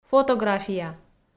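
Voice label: "фотография" Komi, female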